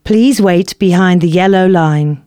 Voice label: announcements female